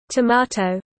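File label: "tomato" British English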